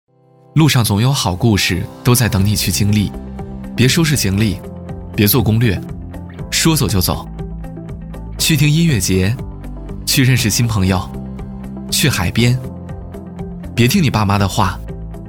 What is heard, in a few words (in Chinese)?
男 旅行自述 年轻 质感; 十一去哪; 年轻活力 年轻时尚